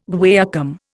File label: "guaiacum", Indian